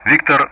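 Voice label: Speech Sound Russian; DCS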